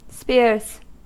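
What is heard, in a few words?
"spears", US English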